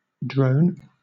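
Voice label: "drone", British English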